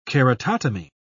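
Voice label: "keratotomy", British English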